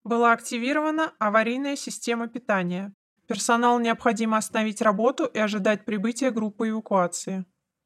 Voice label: announcer lights out; ISB Special Forces